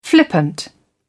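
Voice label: "flippant", British English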